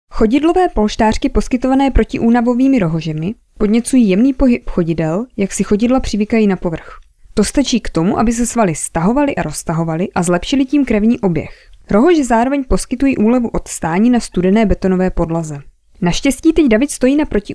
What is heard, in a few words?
female czech